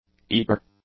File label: "eager", English; adjectives